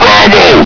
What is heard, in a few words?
"bravo!", hgrunt; hl2